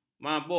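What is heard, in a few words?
"mabuk", Malay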